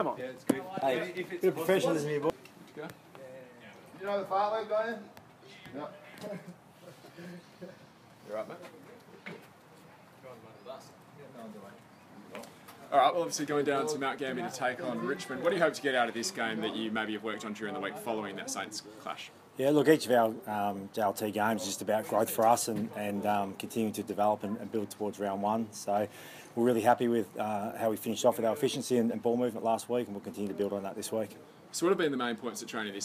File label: press conference